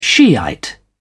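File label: "shiite", British English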